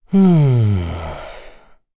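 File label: Vox Think, Korean; voices; heroes; Kain; kingsraid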